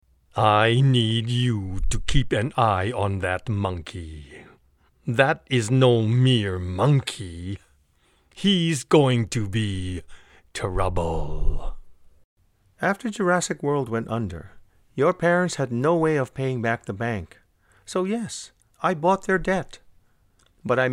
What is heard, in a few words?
Character vo